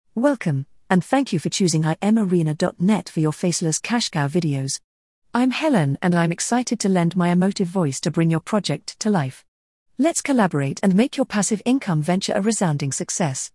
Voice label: British Female